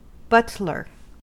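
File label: "butler", US English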